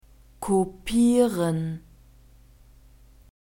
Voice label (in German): "kopieren", German